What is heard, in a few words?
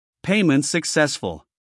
EN PAYMENT SUCCESSFULL